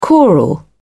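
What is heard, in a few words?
"choral", British English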